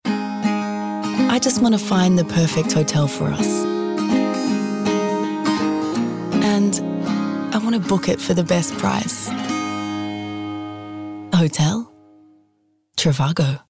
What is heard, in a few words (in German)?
eng werbung